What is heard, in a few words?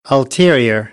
"ulterior", American, English; ɹ - ə